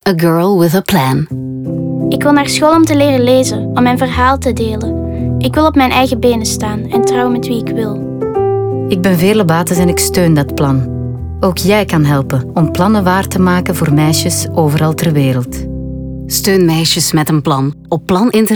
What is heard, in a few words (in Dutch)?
Radio NL; Plan International